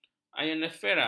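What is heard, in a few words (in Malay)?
"ionosfera", Malay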